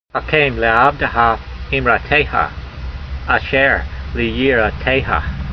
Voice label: voice, hebrew language; Psalm 119:38; hakaym le'avdeha eemrahteyha, a'sheyr le'yir'a'teyha